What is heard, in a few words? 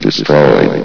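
"destroyed", announcer voice; Source Engine; bshift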